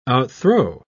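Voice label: "outthrow", English, British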